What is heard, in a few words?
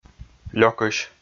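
"лёкысь", Komi, male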